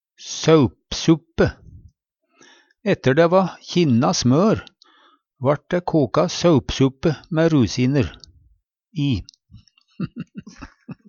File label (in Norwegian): "saupsuppe", Numedalsmål